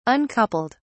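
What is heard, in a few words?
"uncoupled", British English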